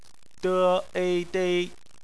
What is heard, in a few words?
"dei", Chinese; phonetics; bpmf